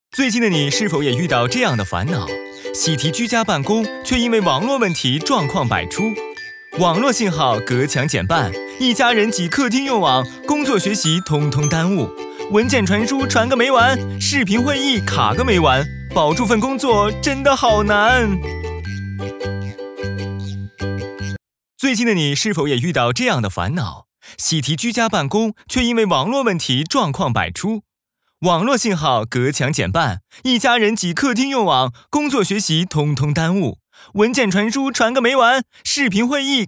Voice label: 轻松活泼年轻风格, 男

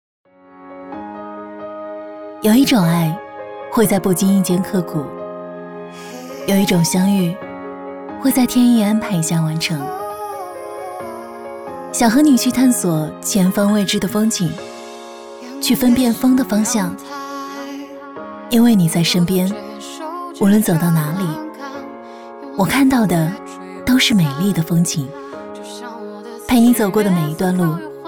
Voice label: 女 旁白 自然 抖音风 素人, 文旅配音

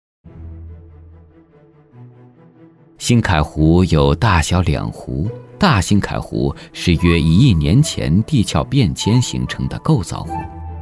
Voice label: 男 国 大音 纪录; 兴凯湖生态风光

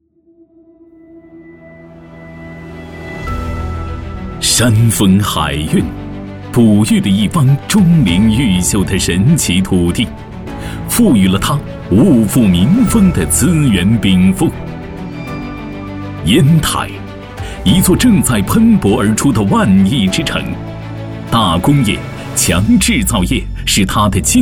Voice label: 男 力量 农业; 烟台农业

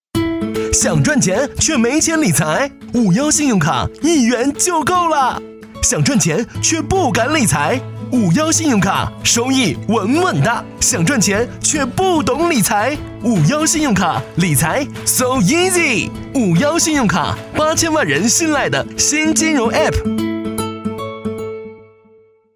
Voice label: 男国 广告 信用卡; 广告配音; 51信用卡

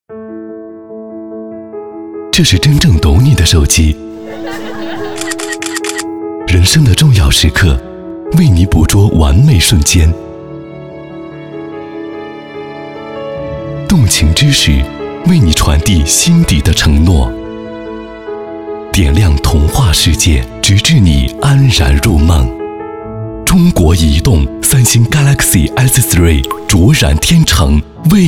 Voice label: 男国 广告; 三星galaxy s3

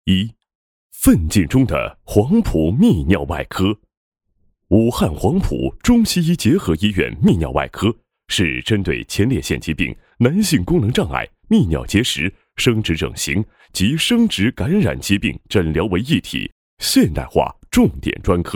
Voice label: 男国; 黄浦医院

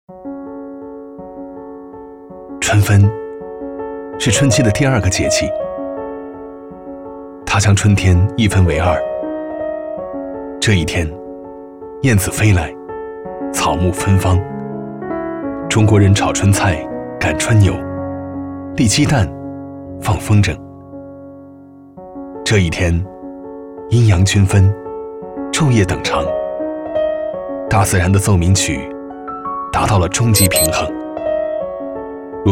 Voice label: 男 素人旁白 节气 春分; 文旅配音; 文旅节气样音

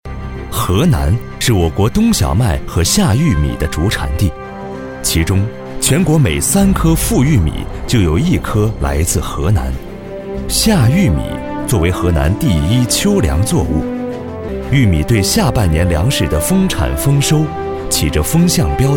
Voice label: 男 大音 农业 玉米; 三宁玉米

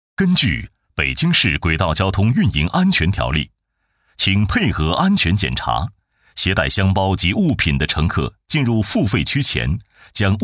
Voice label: 男国 北京地铁 安全提示; 男声广播提示

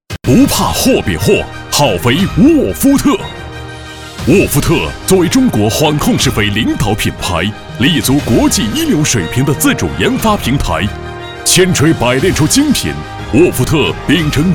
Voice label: 男 大音 复合肥 农业; 沃夫特

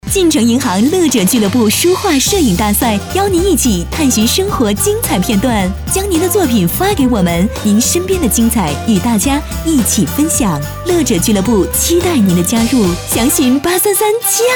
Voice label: 女国 广告 欢快激情; 乐者俱乐部

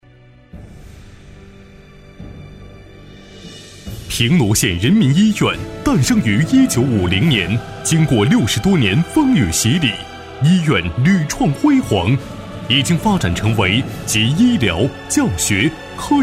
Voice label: 男 国 大音 试音; 平罗县人民医院

